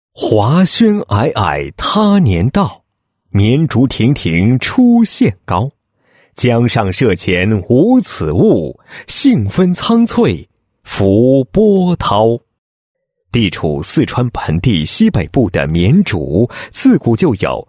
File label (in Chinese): 男-报告-市创建省级园林城市技术报告, 配音案例